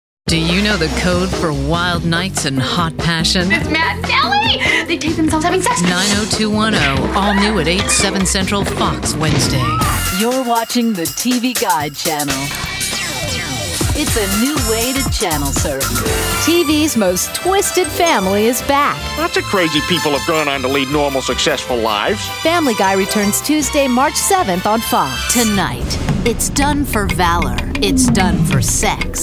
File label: Promos